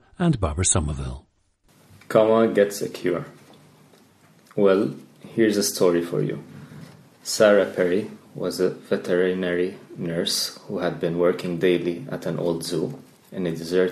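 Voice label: lebanon, dialects of English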